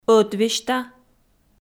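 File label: "ӧтвесьта" Komi, female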